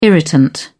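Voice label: "irritant", British English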